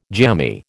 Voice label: "jammy", American